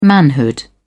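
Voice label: "manhood", British English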